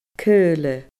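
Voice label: "caoile", Scottish Gaelic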